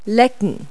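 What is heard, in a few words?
"lick", English word; Multi-Lingual Talking Picture Dictionary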